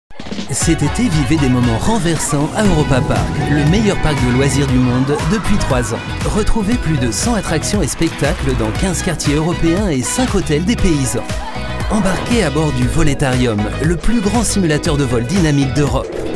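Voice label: French, Lebendig; Fremdtake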